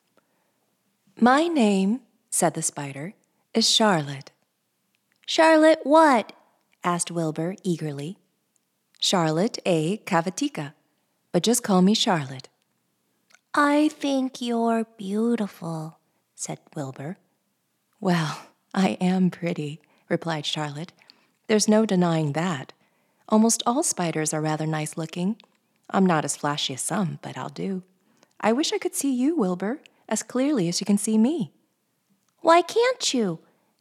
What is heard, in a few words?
Childrens